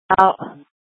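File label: Police Department Dispatch, scanner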